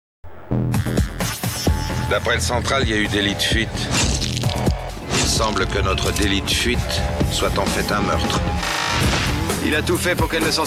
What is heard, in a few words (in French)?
promo; tf1; demain